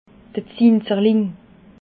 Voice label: "zinserling", alsace

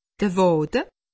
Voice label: "waade", alsace; medecine

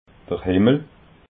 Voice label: "himmel", alsace

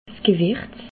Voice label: "gewirz", alsace

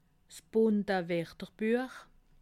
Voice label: "werterbuech", alsace

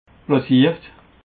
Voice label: "plaziert", alsace

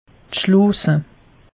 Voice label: "schloose", alsace; meteo

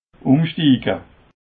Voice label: "abstiega", Alsace

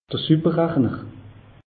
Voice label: "superrachner", alsace; meteo

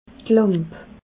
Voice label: "lamp", alsace

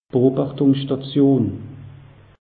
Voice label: "beobachtungsstation", alsace; meteo

